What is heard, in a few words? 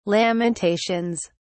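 "lamentations", British English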